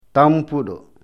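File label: "tampudo", Fula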